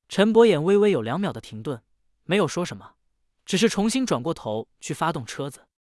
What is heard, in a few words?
Narration relaxed; zh-CN; Yunxi